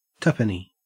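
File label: "tuppenny", Australian English; pronunciations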